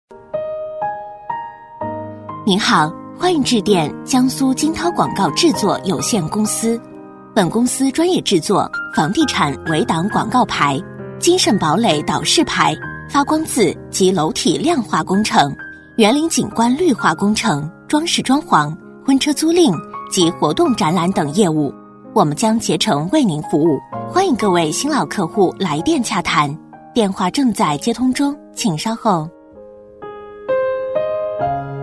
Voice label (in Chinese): 女 电话彩铃【温馨】; 甜美亲切